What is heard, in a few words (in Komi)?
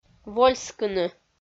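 "вольскыны", Komi, female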